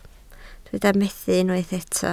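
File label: "methu" Welsh, spoken word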